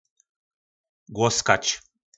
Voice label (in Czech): "Głoskač", nářečí Po našimu; Hladit